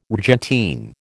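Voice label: "rgentine", American English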